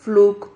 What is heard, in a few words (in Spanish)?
"fluc", Silabas